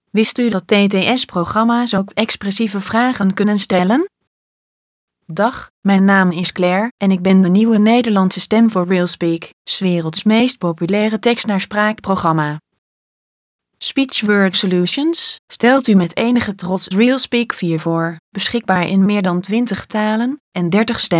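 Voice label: Dutch Netherlands, Sprachproben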